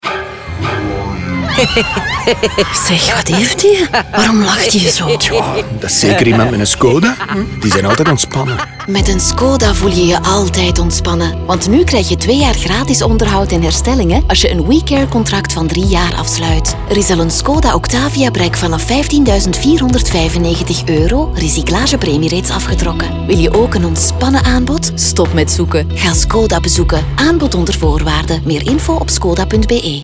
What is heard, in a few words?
radio; skoda